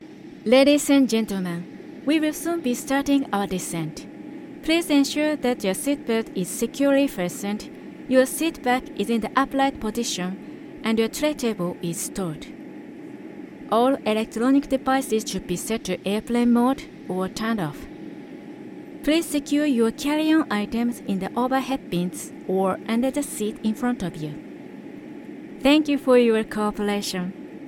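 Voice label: english corporate videos, Femelle; Vidéos d'entreprise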